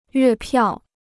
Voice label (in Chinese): "月票", Chinese